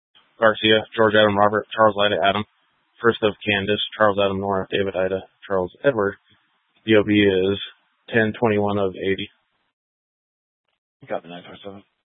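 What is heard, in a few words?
Incident Tac 3, Scanner audio recording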